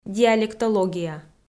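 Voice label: "диалектология", Komi, female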